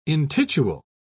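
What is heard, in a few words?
"intitule", British English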